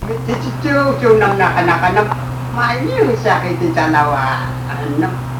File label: Yami Language, M, 69; ko nimanokzos so asa ka kois; 我射死了一隻豬; I killed a pig; Iranmilek; 東清村; 男